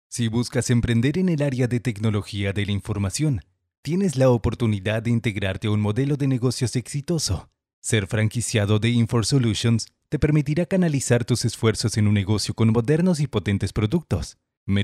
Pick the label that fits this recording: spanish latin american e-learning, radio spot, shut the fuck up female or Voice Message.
spanish latin american e-learning